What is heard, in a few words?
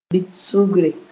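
"bɩt-sʋgre", Mooré; average cycle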